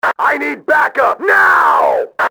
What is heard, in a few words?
"need backup", radio, male; XBOX